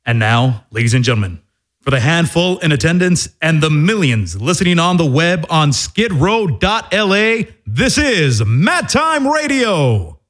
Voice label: Radio Announcer Intro; Mat Time